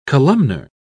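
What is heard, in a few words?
"columnar", British English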